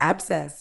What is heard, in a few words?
"abscess", Medical English; ˈæb.ses; AB-sess